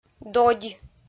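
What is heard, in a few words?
"додь", Komi, female; kpv; audio dict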